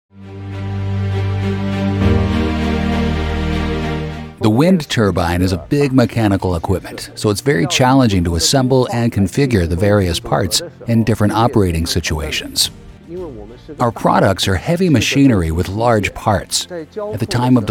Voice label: Imagefilme Profi Publikum; NATIVE PROFISPRECHER

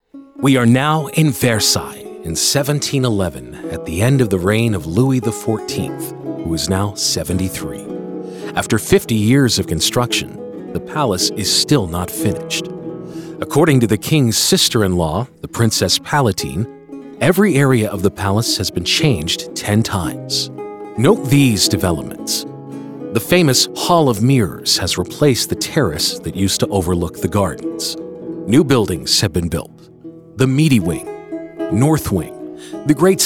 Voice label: Audioguide Jugendfrei, NATIVE; PROFISPRECHER

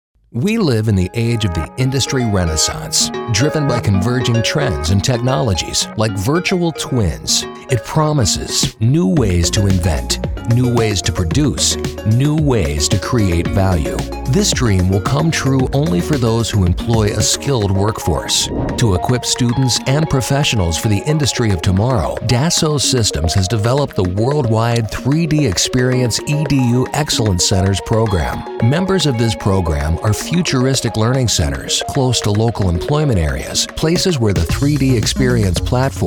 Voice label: Imagefilme Profi Publikum; NATIVE PROFISPRECHER

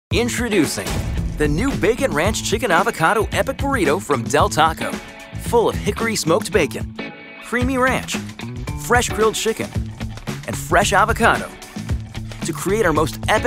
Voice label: TV Werbespots; Jugendfrei; NATIVE PROFISPRECHER

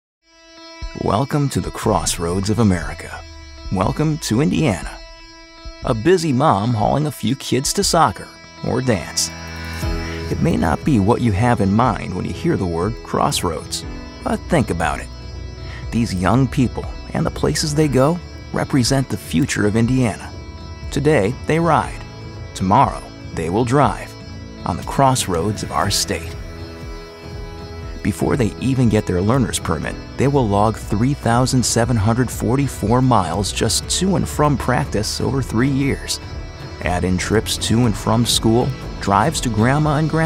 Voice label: Imagefilme; Profi Publikum; NATIVE PROFISPRECHER

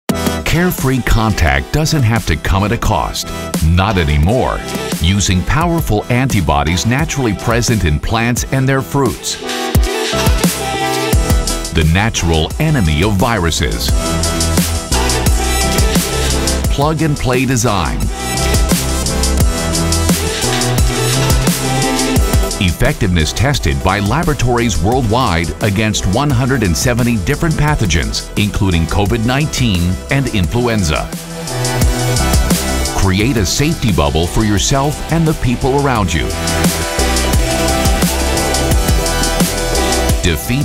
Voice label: Produktvideos Profi Publikum; NATIVE PROFISPRECHER

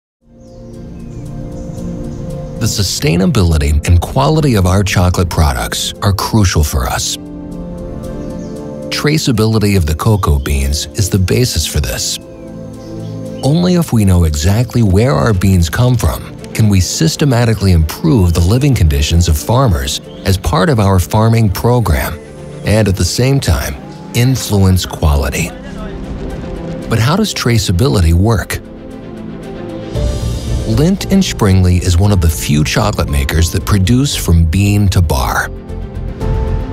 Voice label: Imagefilme Profi Publikum; NATIVE PROFISPRECHER

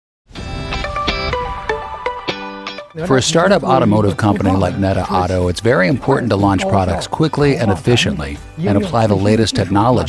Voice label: Imagefilme Profi Publikum; NATIVE PROFISPRECHER

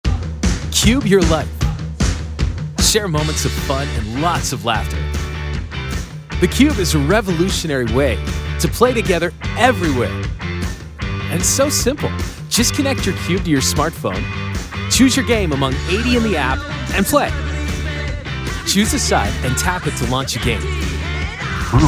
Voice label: TV Werbespots Jugendfrei; NATIVE PROFISPRECHER

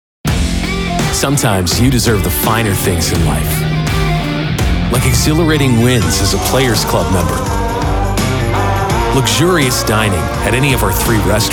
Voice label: TV Werbespots Online Werbespots; NATIVE PROFISPRECHER

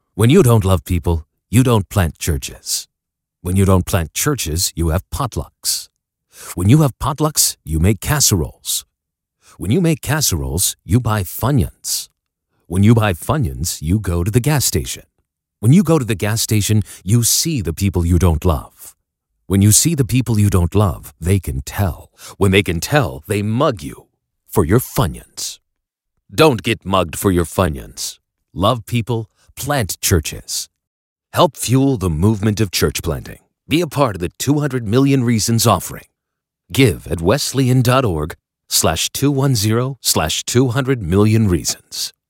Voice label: TV Werbespots Jugendfrei; NATIVE PROFISPRECHER

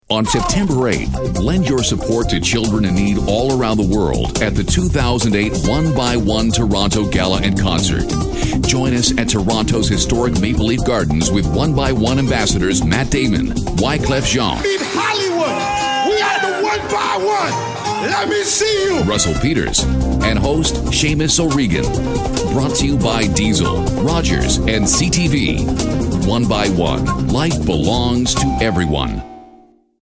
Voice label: Habillage Vorschauvideos

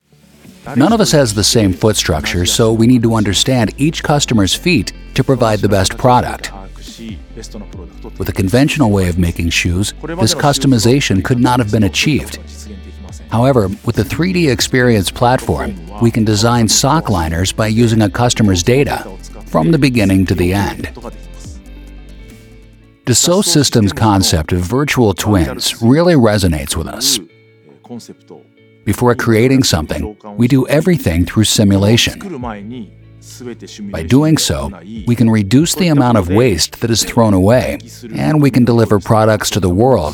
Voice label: Imagefilme Profi Publikum; NATIVE PROFISPRECHER

